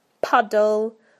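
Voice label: "puddle", British English; PictureDictionary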